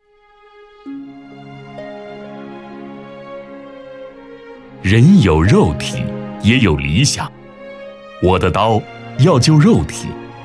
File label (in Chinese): 男 专题 人物专题; 白求恩